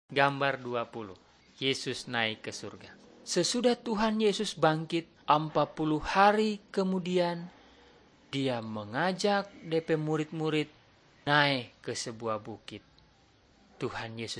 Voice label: malay manado; good news

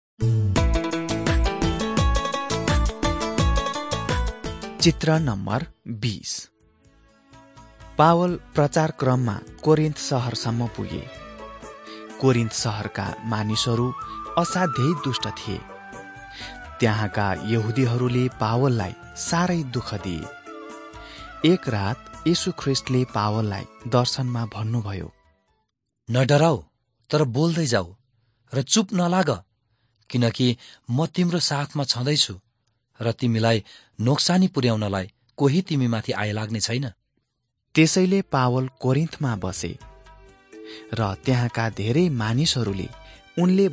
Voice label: acts of the holy spirit nepali

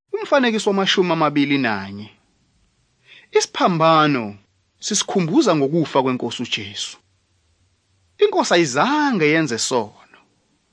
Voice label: zulu bible; good news

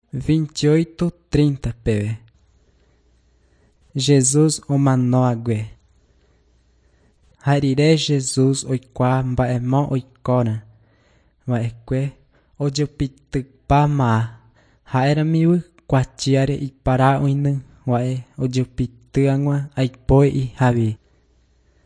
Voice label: john guarani mbya, bible

